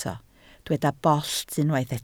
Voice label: "bollt", Welsh